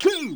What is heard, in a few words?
"Two", Yamaha DD-7